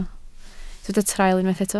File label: "traul", Welsh